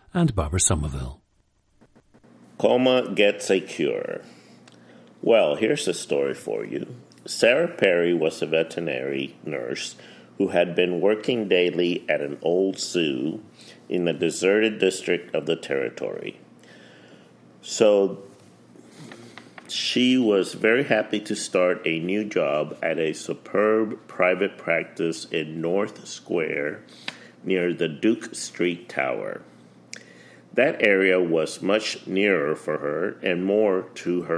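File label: florida, International Dialects of English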